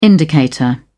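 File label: "indicator", British English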